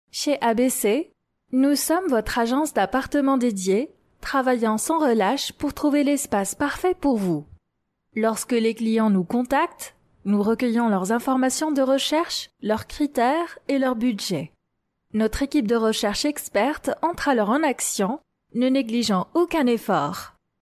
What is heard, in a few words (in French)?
Fr female, french